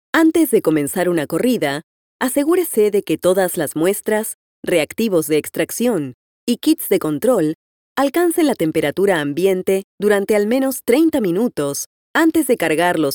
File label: Latin American Spanish